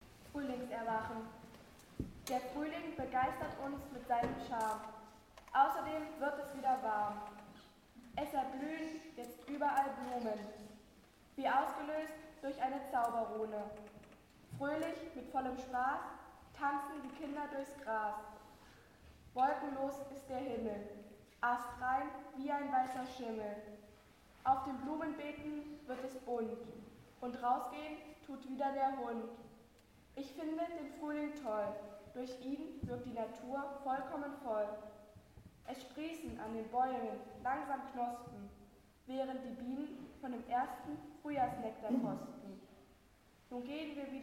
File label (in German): Audio Gedicht; Frühlingskonzert; Werben